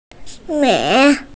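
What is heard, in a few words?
"min2", Mandarin Chinese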